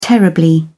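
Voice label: "terribly", English, British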